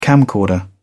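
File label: "camcorder", British English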